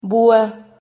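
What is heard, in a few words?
"buha", Spanish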